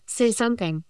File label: Voice Acting, es, F0